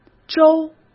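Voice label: "粥", Mandarin Chinese; hsk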